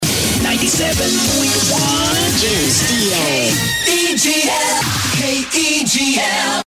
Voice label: Jock shout and Jingle; KEGL